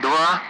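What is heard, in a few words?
Speech Russian; Allied Flight; Digits; DCS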